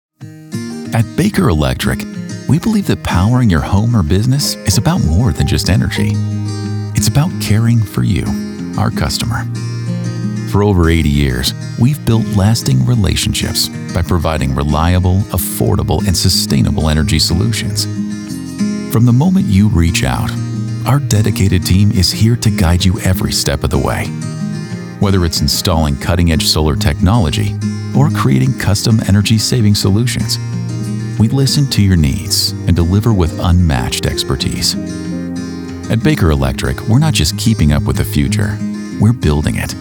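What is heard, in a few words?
Corporate, Voice Actor; Baker Electric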